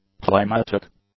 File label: "climatic", English; adjectives